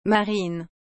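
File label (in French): "marine", French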